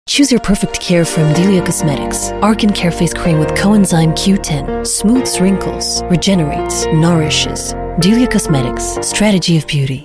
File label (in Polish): Lektorka ENG; Studio Nonagram